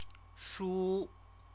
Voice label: "shu", Chinese

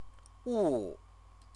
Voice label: "wu", Chinese

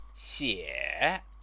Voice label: "xie", Chinese; 写; write; verb

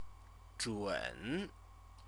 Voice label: "zhun", Chinese; CTCFL; University of Oxford

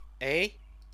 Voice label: "ei", Chinese

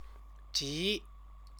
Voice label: "ji", Chinese

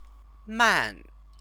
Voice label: "man", Chinese

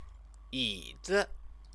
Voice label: "yizi", Chinese

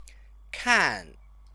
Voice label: "kan", Chinese

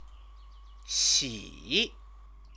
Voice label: "xi", Chinese

